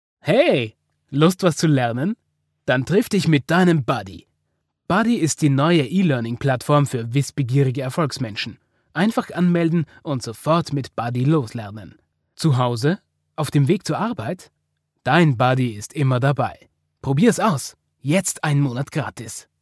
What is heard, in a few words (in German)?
Werbung; BUDDY